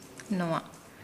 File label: Nukuoro; tie